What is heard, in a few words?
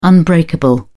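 "unbreakable", British English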